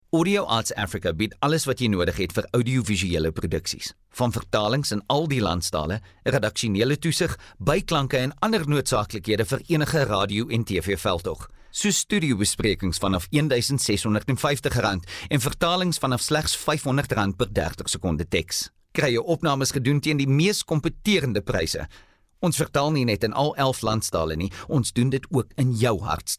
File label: Afrikaans Retail